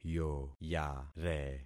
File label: "yoyare", concat syllables; Affixing experiment